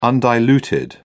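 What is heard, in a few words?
"undiluted", British English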